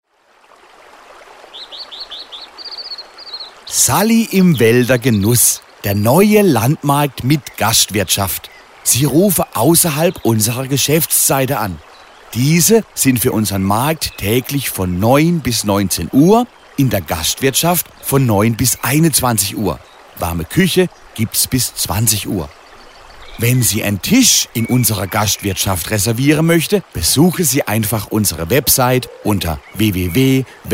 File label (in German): AB Ansage nach 21 Uhr; Badische Telefonansage – Telefonansage badisch